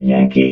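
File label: "yankee", robot voice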